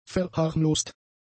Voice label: "verharmlost", German; adjectives